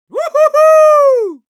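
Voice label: Scout cheers, Russian; "Ву-ху-ху!"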